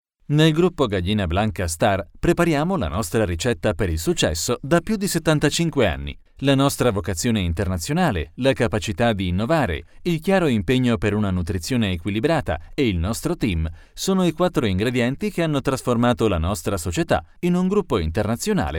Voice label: italian male corporate

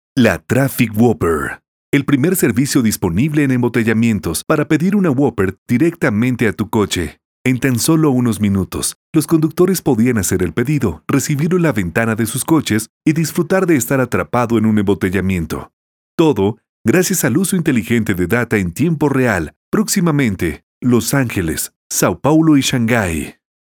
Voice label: spanish colombia male commercial

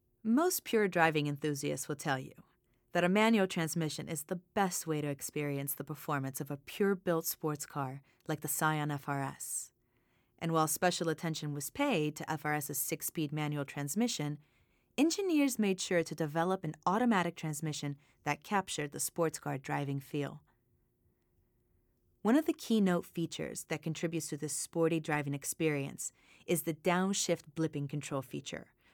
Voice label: english us and canada female narrative

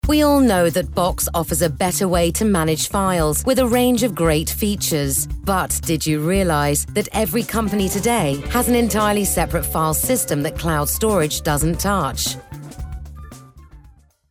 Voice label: english uk female corporate

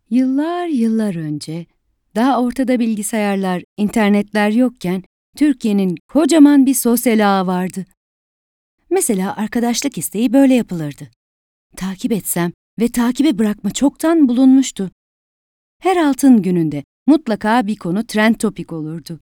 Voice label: turkish female generic

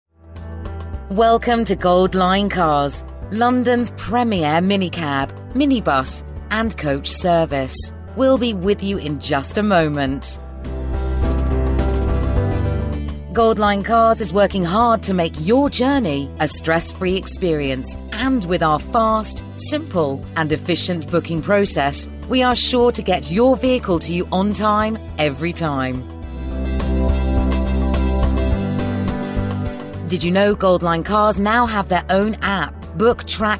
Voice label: english uk female generic

